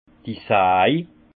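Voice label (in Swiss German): "saj", Haut Rhin; vin; scie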